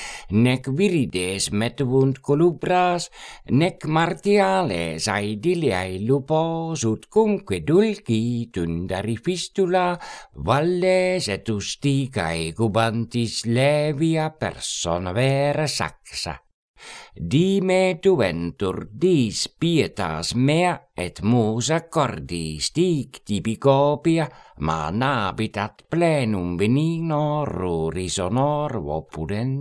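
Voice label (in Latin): Horatii carmina quae voce canora pronuntiat; Velox amoenum saepe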